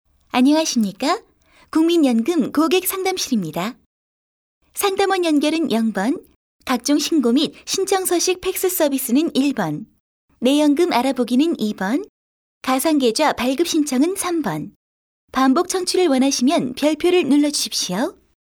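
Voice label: Korean female; ARS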